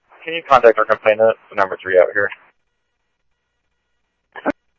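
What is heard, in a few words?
police department, scanner audio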